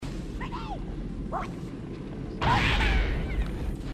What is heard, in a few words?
grunt death; grunts